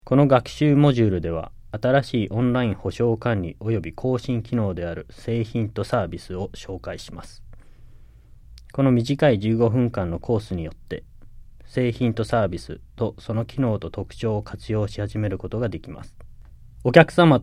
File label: JAPANESE; delltranslations